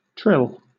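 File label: "trill", British English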